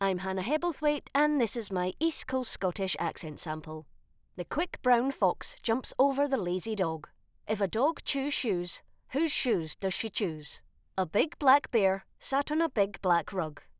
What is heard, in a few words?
UK Scottish Accent